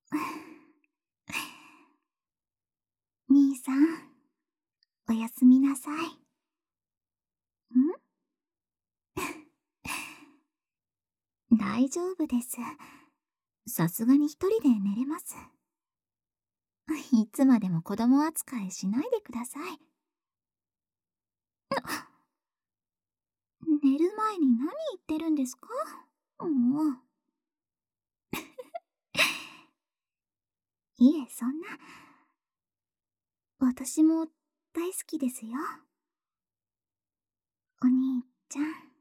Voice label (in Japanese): おやすみボイス, ASMR